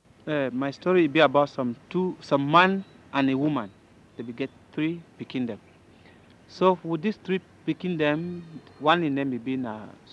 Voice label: Cameroonian English Pidgin